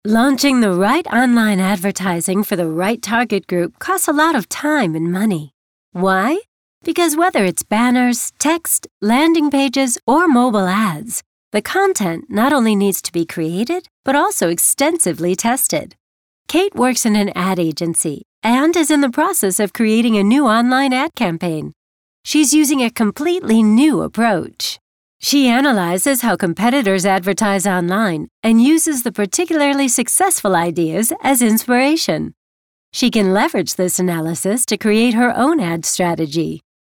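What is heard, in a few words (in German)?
American English, Kommentar Dynamisch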